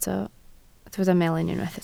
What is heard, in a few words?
"melyn", Welsh